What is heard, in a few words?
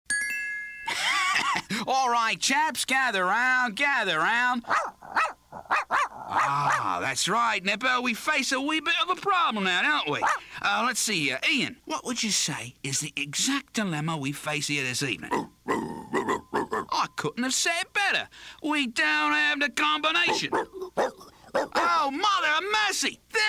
Cockney Thief & Dogs, cartoon; animation